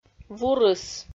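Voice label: "вурыс", Komi, female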